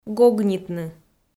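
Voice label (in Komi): "гогнитны", Komi, female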